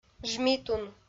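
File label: "жмитун", Komi, female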